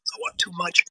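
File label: VoiceActing es, Male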